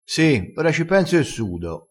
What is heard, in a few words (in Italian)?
"ora ci penso", crespinese